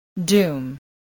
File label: "doom", spelling